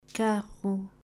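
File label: "Carro" Guttural, Portuguese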